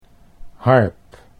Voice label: "harp", Dutch